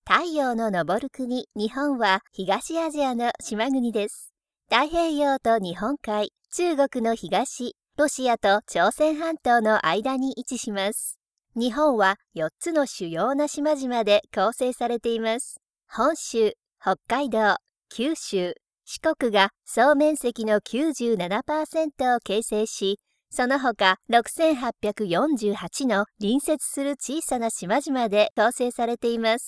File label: Documentary narration